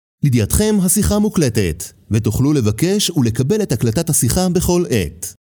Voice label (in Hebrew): notice sentence male; voiceovers